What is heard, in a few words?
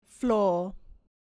"floor", English